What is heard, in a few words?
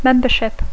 "membership", English; pertinença; adhesió